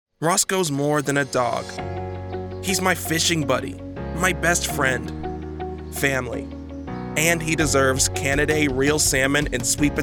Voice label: Commercial